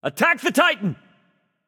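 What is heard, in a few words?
vox attack male; smite; loki; voicepack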